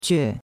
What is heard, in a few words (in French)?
"que4", chinois; Cours-de-Chinois